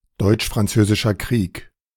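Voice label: "Deutsch-Französischer Krieg", German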